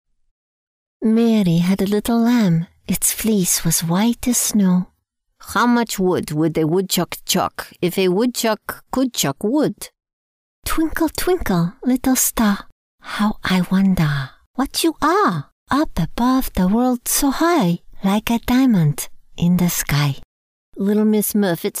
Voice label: Accents and Dialects